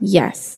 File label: "yup", drag